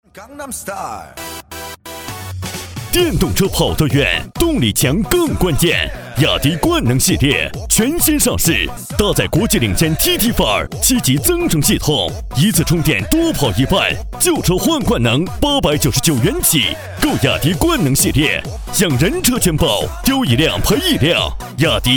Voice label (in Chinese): 男 激情叫卖 电动车; 雅迪电动车